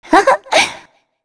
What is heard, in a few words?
Happy; Shea; kings raid; en